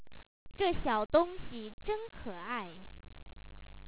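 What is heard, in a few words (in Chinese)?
"zhe xiaodongxi zhen keai", Chinese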